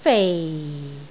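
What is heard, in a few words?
"fei4", Cantonese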